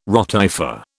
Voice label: "rotifer", British